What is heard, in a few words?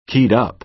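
"keyed up", American English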